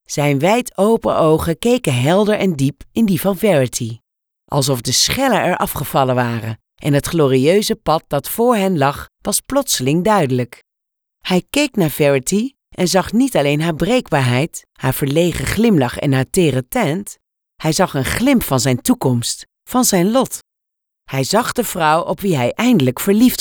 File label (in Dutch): Luisterboek